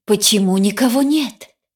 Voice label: cinematic voice, ru; lineage2

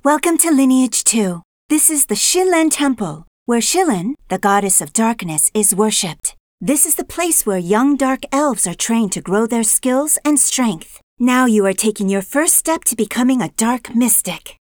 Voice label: tutorial voice, pl; lineage2

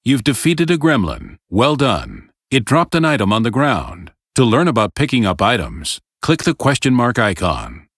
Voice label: tutorial voice; lineage2

